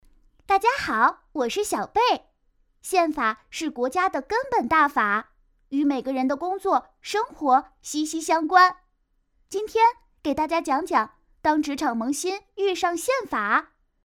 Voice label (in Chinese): 女童